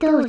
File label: Serious Word; Midna